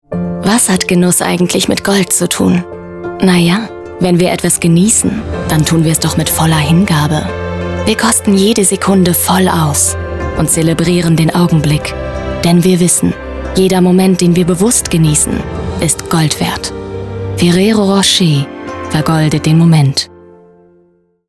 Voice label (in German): nachgesprochen werbung